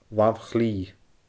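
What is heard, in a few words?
"laamh chlii", Scottish Gaelic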